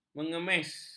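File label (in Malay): "mengemis", Malay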